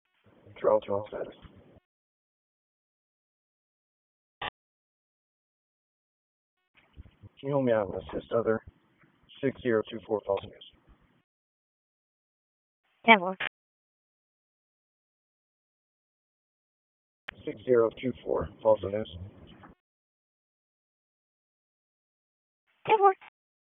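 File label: Raleigh Police Northeast District Dispatch; wake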